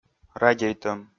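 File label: "радейтӧм", Komi, male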